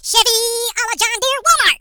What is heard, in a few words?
Firepunch, Hill Billy; hedgewars